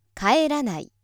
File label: female